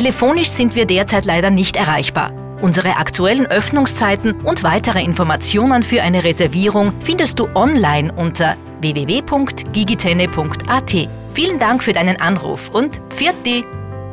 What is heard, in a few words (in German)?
Restaurant Nachtansage; Giggi Tenne; telefonansagen tourismus